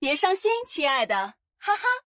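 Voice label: Chinese; 回声（守望先锋2）; 终极技能; 简体中文; 别伤心，亲爱的！（笑）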